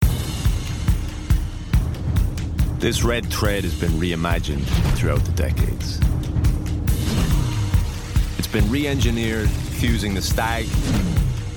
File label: Voiceover; 20s-30s. Male. Irish.